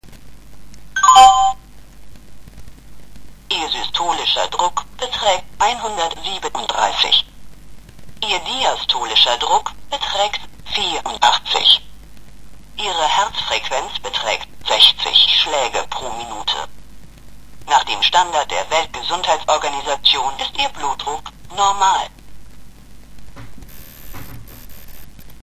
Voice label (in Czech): tlakomer nemec